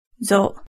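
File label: "zo", Japanese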